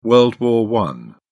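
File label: "world war i", British English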